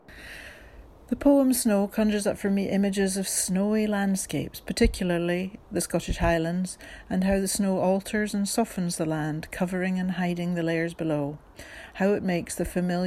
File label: audio voiceover